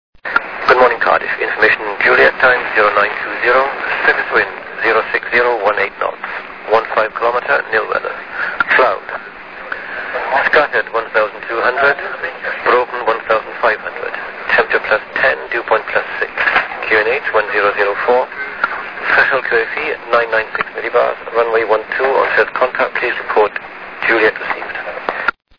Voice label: atis; cardiff